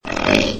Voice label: hurt; svencoop; legends; queen